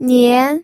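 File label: "nian", second tone, pinyin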